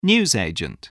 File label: "newsagent", voice